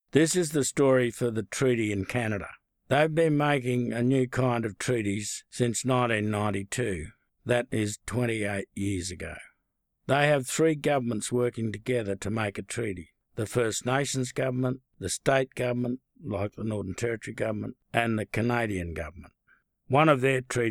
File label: English